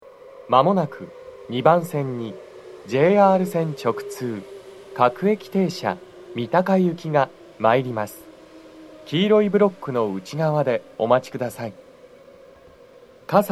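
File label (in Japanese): 接近放送, 男声, 放送は「各駅停車 三鷹行」です。葛西で、快速 中野行きの通過待ちを致します。 TOSHIBAでの収録です。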